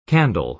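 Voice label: "candle", American English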